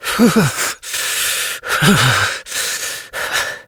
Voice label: Operator Voice; Warframe; Operator Mission; Generic Cold Shiver